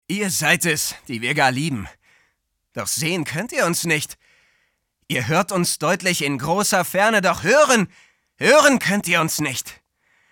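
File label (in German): agressiv, Männer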